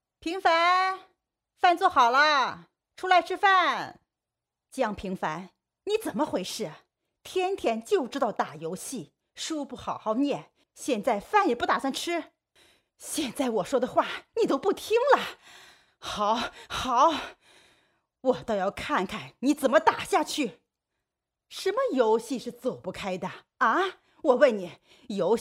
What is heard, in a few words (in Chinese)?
模仿 中年妈妈40多岁